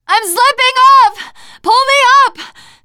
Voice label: ledge hang end, teengirl; player; survivor; voice

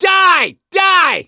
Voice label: battlecry, survivor voice; gambler

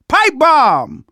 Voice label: spot grenades, survivor voice; manager; Left 4 Dead 2; left4dead2 dlc2

